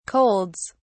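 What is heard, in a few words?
"colds", British English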